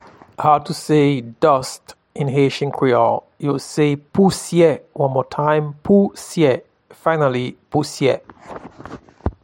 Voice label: Dust in Haitian Creole, Pousye

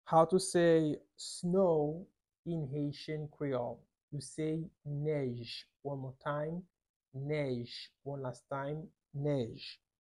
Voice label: snow in Haitian creole nej with pronunciation

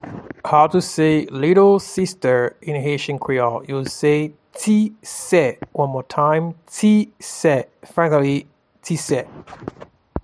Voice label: Little sister in Haitian Creole, Ti se

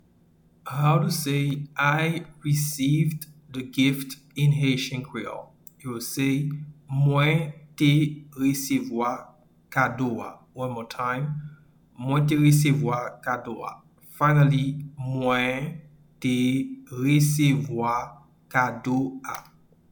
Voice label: "Mwen te resevwa kado a", Haitian Creole; “I received the gift” is translated as “Mwen te resevwa kado a” in Haitian Creole

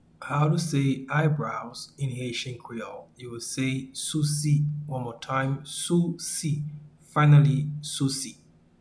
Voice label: "Sousi" audio pronunciation, Haitian Creole; “Eyebrows” is translated as “Sousi” in Haitian Creole

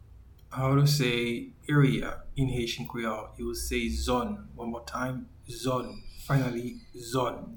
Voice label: "Area" in Haitian Creole, "Zòn"

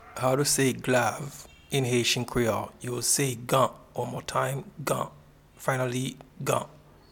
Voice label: Glove in Haitian Creole Gan

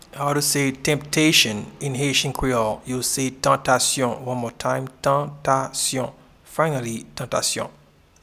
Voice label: Temptation in Haitian Creole Tantasyon

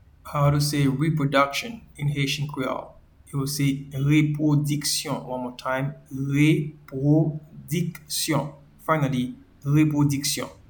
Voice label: Reproduction in Haitian Creole Repwodiksyon

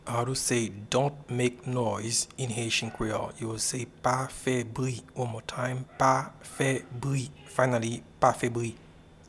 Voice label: Dont make noise in Haitian Creole Pa fe bri

